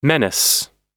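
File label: "menace", US English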